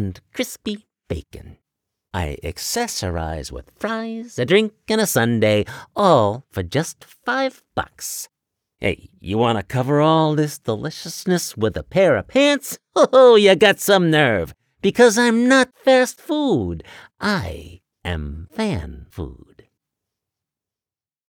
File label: comm Steakhouse Burger cocky; DQ A1 Steakhouse Burger - cocky personality